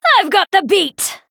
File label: Female Night Elf Attack